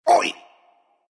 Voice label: pain, ru